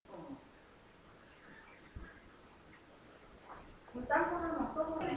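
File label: "neck", spoken word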